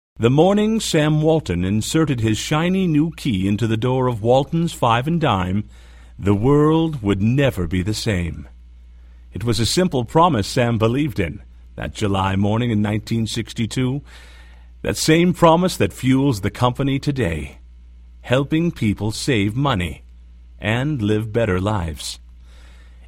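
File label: Audio Book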